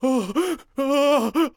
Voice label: Enemy Generic Human Spawn Disbelief